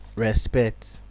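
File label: "respect", Nigerian Pidgin, male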